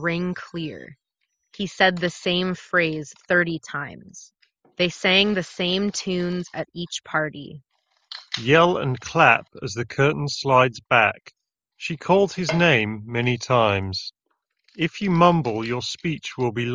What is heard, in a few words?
Office microphone sample; JBL Quantum 910P